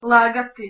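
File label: "lagati" Bosnian Croatian Serbian, female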